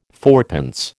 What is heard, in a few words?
"fourpence", American